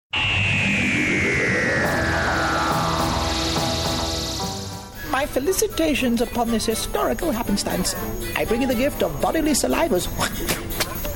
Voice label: cartoon

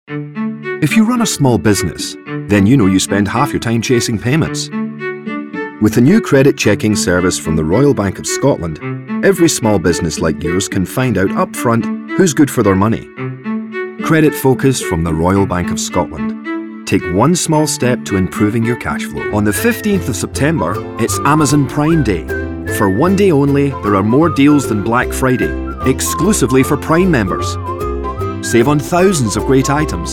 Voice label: commercial

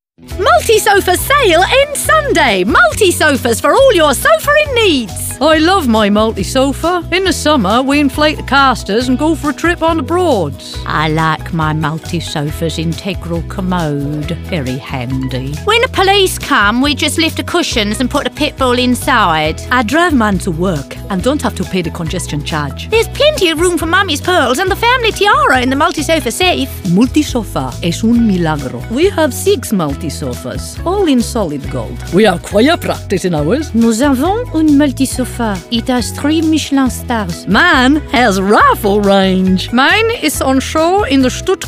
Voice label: character

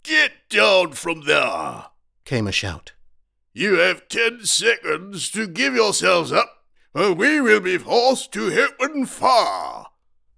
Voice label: audiobook home studio character voices; david walliams

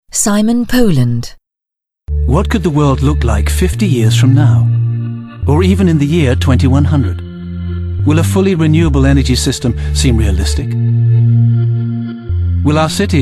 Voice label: narr

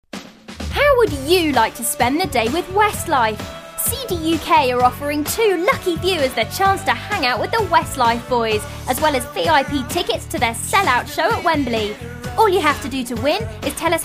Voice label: promo

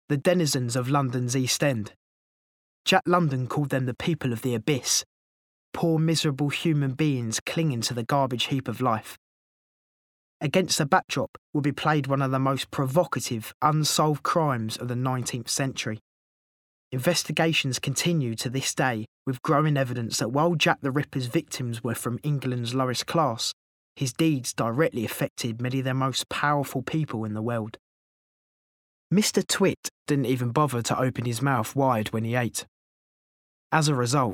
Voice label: narrative

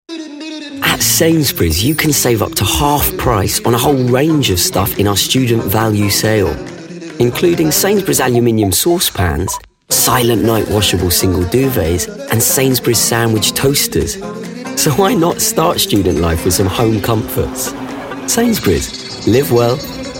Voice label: Compressed Commercial

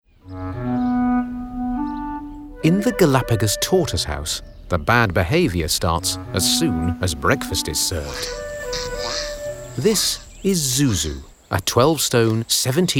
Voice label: narrative

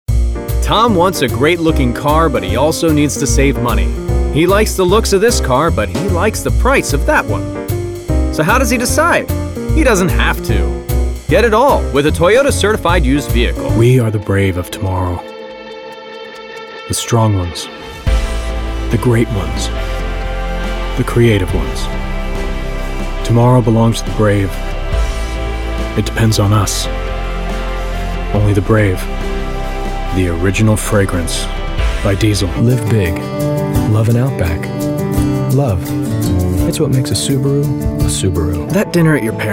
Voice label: commercial

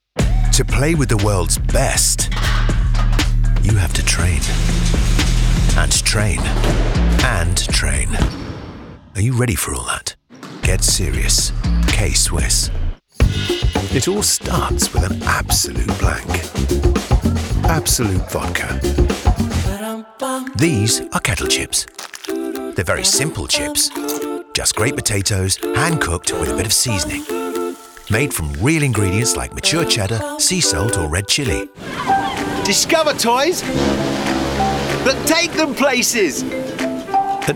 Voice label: commercial

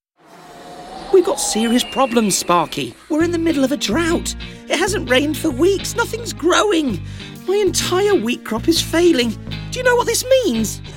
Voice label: cartoon

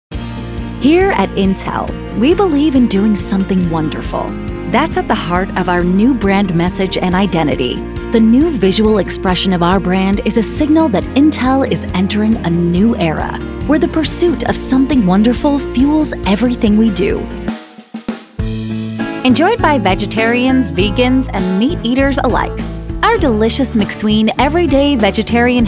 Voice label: Corporate

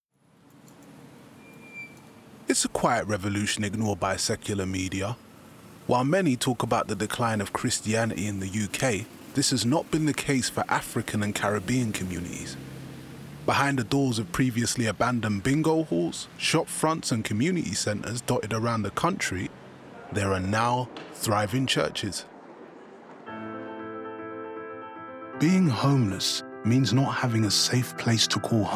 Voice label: Narrative Showreel; EBU128 -23LUFS

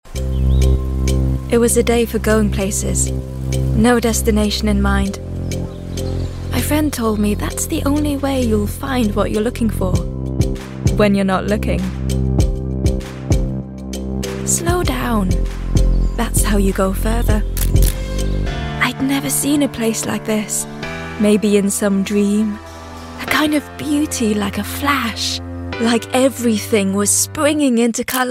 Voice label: Commercial Reel English

